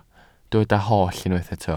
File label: "holl", Welsh